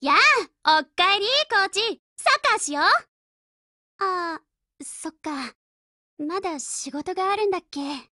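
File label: HELLO, Japanese; Lusa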